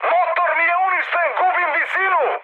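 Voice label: Serbian damaged engine mood high, speech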